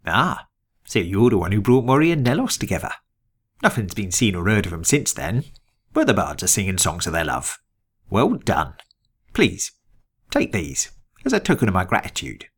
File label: breton, voice auditions